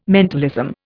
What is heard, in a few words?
"mentalism", Indian